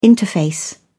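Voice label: "interface", English, British English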